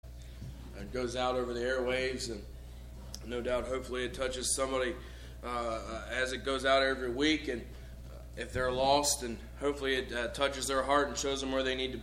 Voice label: Broadcast Prayer